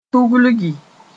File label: "tuglgi", moore